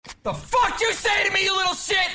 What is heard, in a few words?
ninja angry; Voicemod